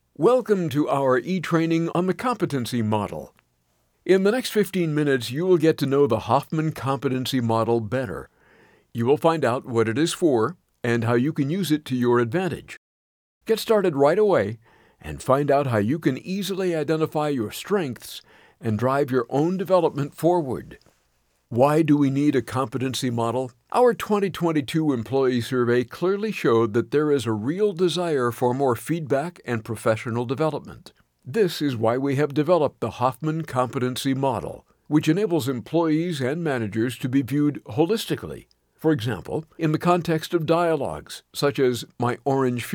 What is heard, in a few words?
e-learn